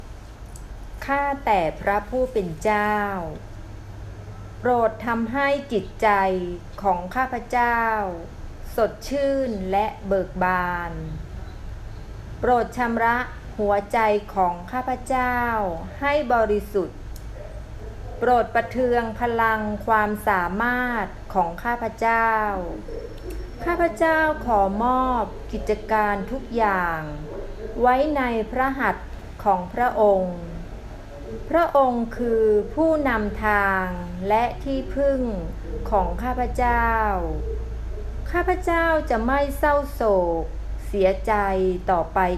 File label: prayer thai; refresh and gladden my spirit